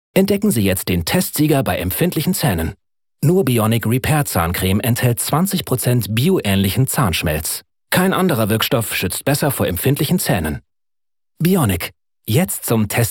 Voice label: werbung; bioniq

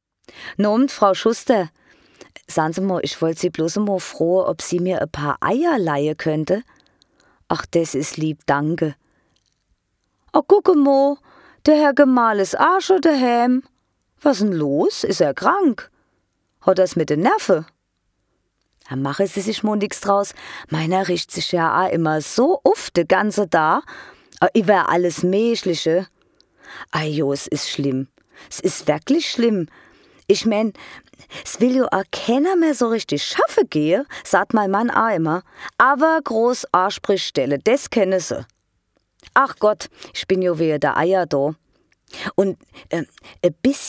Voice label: pfalzisch

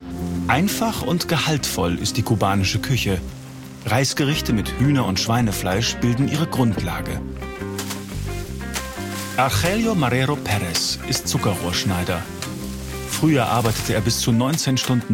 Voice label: doku kommentar; arte; zutisch

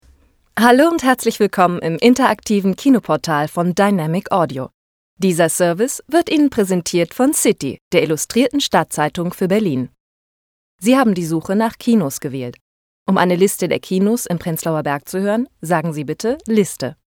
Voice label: telefon

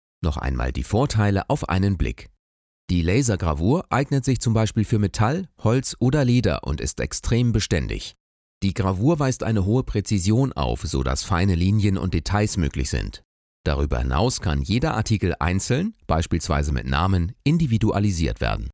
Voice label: stimmprobe e-learning

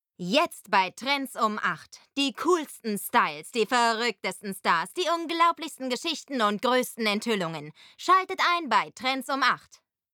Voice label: tv einspieler

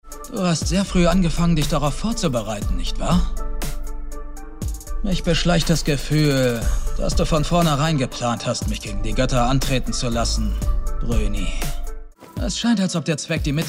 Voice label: synchron; record of ragnarok; rolle buddha

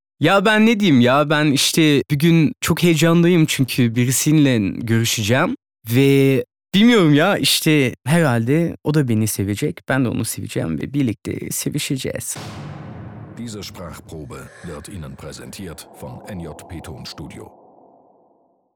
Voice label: karatuerkisch hell

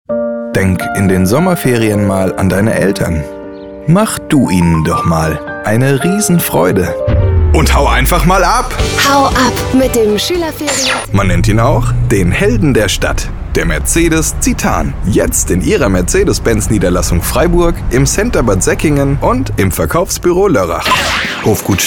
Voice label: werbung mix

